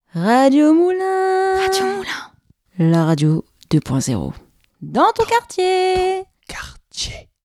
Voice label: jingle radio; radio moulins